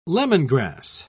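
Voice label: "lemongrass", British English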